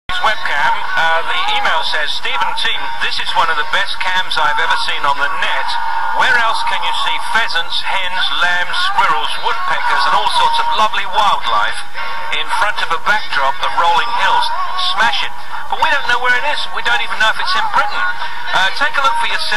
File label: radio announcement